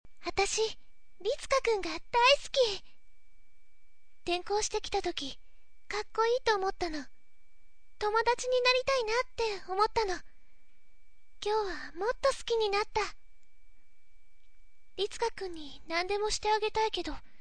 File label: voice onna